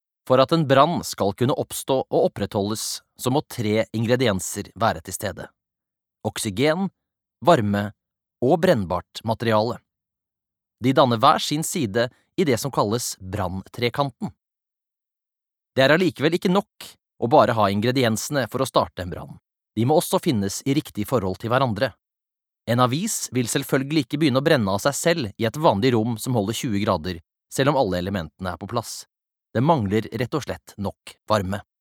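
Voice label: Norwegian e-learning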